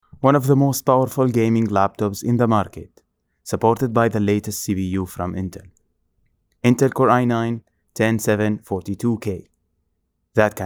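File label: Arabic English, male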